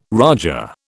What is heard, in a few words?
"raja", British